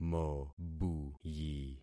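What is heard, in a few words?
"mobuyi", concatenated syllables; Affixing experiment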